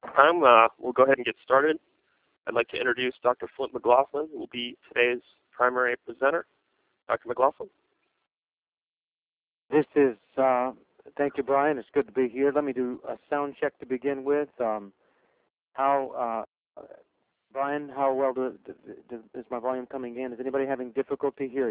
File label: teleconf; small ppc engines